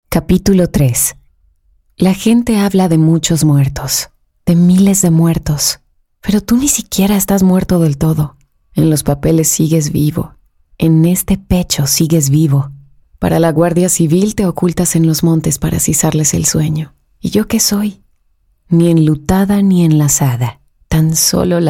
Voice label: spanish voice over female audiobook